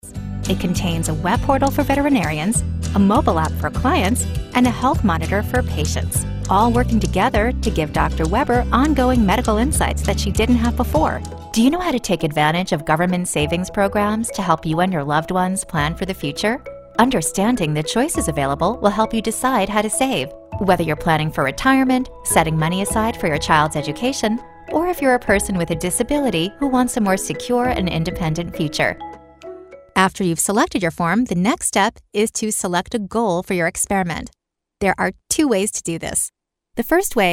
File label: Explainer; Explainer Demo